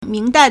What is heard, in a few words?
"ming2dai4", Chinese